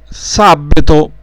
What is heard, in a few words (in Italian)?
"sabeto", dialetto; sabbeto sabato